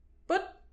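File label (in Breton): "paotr", Breton; petit precis de prononciation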